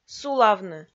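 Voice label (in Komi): "сулавны", Komi, female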